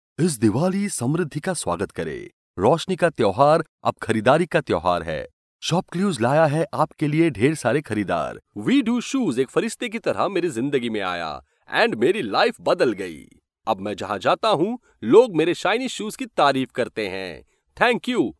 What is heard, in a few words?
male hindi voice over talent sales